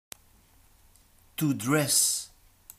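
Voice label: "to dress", British English; PictureDictionary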